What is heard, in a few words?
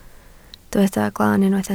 "glan", Welsh